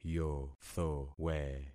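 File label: "yothowe", concatenated syllables; Affixing experiment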